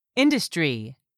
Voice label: "INDUSTRY", english; 3000 words

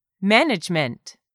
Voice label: "management", English; 3000 words

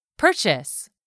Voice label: "purchase", English; 3000 words

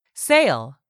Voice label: "sale", English; 3000 words

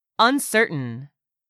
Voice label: "UNCERTAIN", English; 3000 words

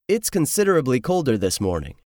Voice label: "considerably", English; 3000 words

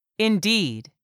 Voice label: "indeed", English; 3000 words

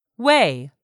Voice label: "weigh", English word; 3000 words

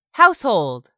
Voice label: "household", English; 3000 words

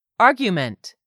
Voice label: "argument", English; 3000 words

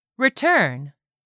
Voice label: "RETURN", english; 3000 words

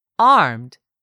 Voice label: "armed", english; 3000 words

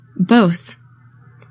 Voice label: "BOTH", english; 3000 words

